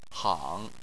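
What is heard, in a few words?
"hang", Chinese, third tone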